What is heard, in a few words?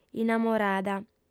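"innamorada", Sardinian, female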